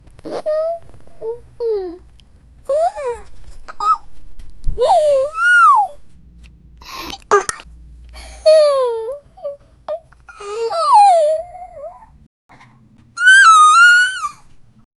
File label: cute girl trying to speak; character voices